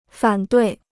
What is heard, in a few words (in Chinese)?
"反对", Chinese